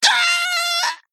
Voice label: Male Abomination Death